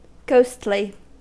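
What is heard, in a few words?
"ghostly", American English; pronunciations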